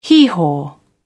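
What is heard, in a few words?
"hee-haw", British English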